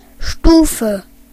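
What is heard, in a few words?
level, Basque; gcompris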